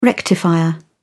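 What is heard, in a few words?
"rectifier", British English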